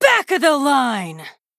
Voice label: Female Night Elf Attack

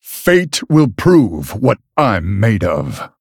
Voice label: Male Human Bark

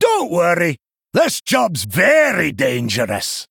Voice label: Male Dwarf Bark; Brann Bronzebeard

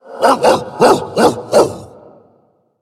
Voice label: Undead Beast Emote

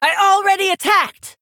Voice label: Female Orc ERROR I ATTACKED

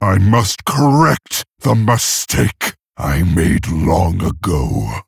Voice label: Male Orc; Thrall; Garrosh

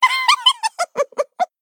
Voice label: Female Gnome Trigger

